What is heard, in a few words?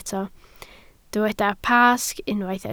"Pasg", Welsh